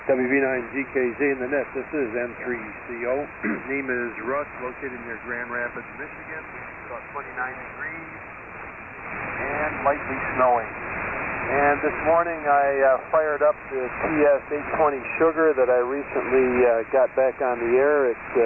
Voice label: Kenwood TS-820S & EV 638 Mic; Byron Center, MI.

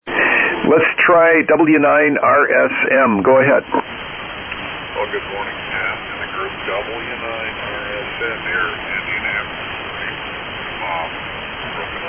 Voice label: Drake C Line Astatic Mic & Palstar LA-1k Amp; Whitestown, IN.

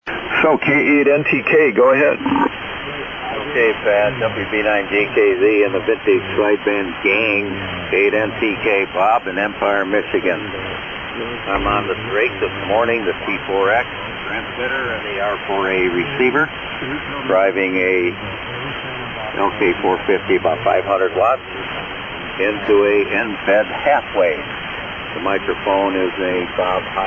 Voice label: Drake T-4x & R-4A 444D Mictophone LK-450 Amp; Empire,MI.

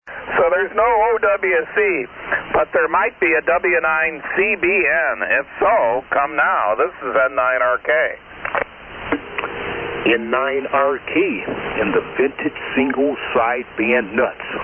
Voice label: Kenwood TS-520S & MC-50 Mic; Milwaukee, Wi.

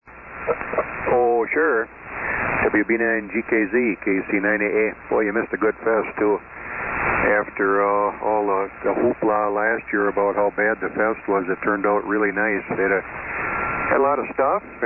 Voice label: Kenwood TS-820S and MC50 Mic; New London, Wi.

